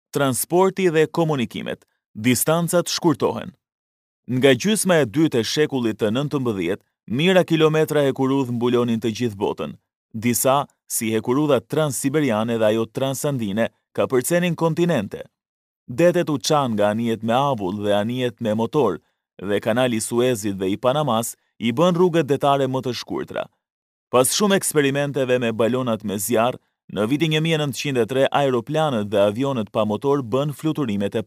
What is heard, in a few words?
Narration